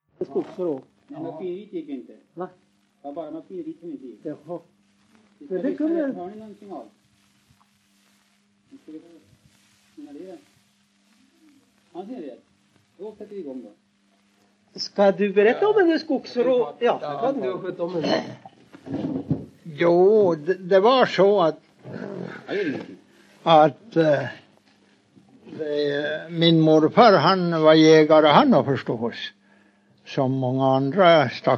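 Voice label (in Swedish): Huddunge Uppland, dialekter; Folkminnen; Svenska sagor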